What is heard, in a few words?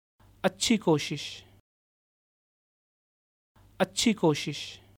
Good try Urdu